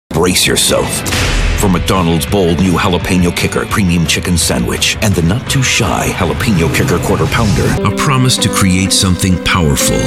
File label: commercial